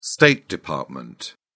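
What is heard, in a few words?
"state department", British English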